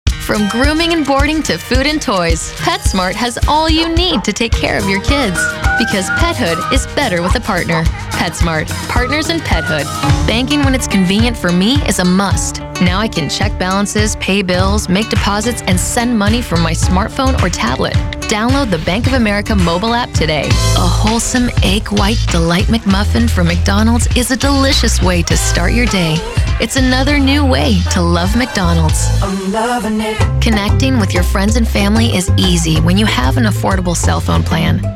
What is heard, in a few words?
commercial